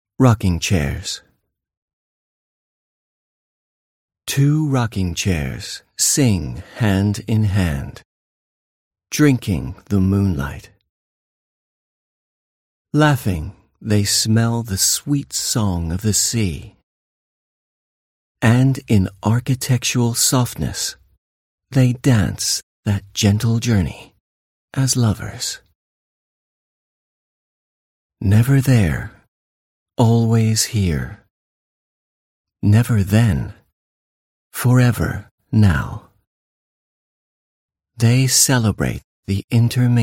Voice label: Mid-Atlantic Male Voice, Slightly British, Mildly American